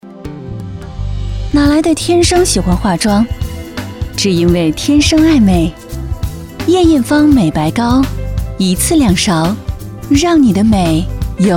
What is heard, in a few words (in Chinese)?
广告 化妆品 美白 优雅